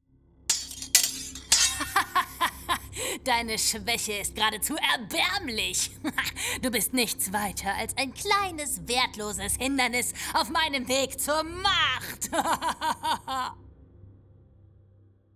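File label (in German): Charge böse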